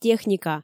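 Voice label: "техника", Komi, female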